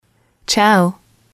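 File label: "ciao", female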